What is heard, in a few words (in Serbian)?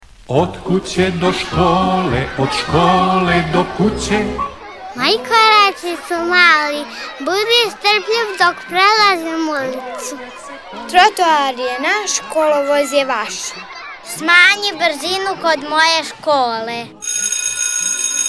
Radio džingl; Početak škole